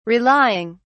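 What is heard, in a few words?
"relying", British English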